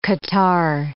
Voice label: "catarrh", English

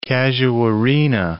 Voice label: "casuarina", English

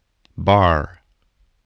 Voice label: "bar", English

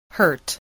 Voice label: "hurt", voice

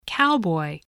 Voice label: "cowboy", voice spelling

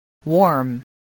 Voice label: "warm", spelling

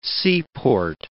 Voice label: "seaport", English

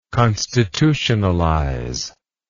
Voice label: "constitutionalize", English

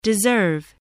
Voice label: "deserve", English

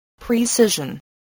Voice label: "precision", voice, spelling

